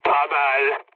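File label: French trick mood low; War Thunder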